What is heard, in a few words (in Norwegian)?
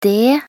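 "det", Norwegian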